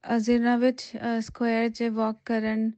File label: punjabi; POP UP Zagreb